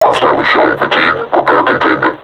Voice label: taunt, vo combine suppressor; Half Life Alyx

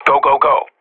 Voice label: radio bot go; Source Model Packs; Counter Strike Model Pack; CS2; professional

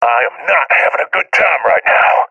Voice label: scared; Counter Strike Model Pack; CS:GO

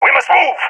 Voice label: request move, balkan; vo; balkan epic

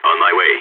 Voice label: on my way, balkan

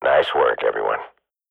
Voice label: radio bot end clean, swat; Counter Strike Model Pack; CS:GO

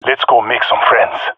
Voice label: attacking, jungle male; vo; agents; Source Model Packs; Counter Strike Model Pack; CS2; jungle male epic

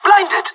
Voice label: blinded, pirate; Counter Strike Model Pack; CS:GO

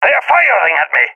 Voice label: taking fire, balkan; vo; balkan epic

